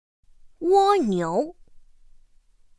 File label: "wōniú", pinyin; pinyin2; pinyinji